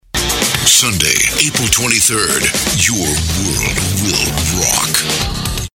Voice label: Voice of god

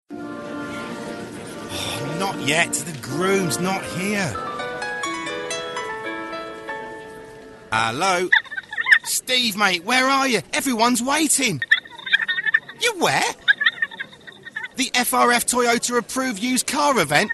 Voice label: character

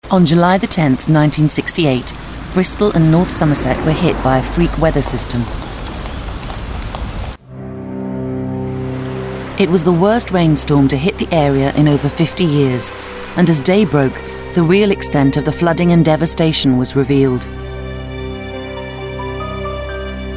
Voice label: narration; Winner VOX 2013 Awards: Best Female Voiceover

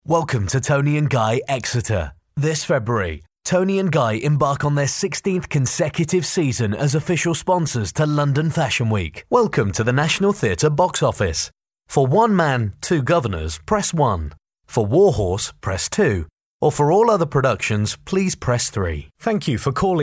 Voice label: telephone

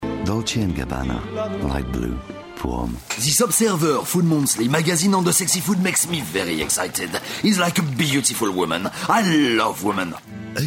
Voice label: French accent

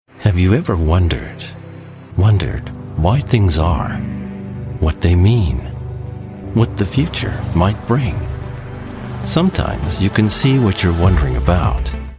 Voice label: friendly

